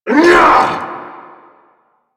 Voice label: Vocal Attack Short